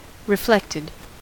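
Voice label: "reflected", American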